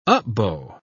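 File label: "up-bow", English, British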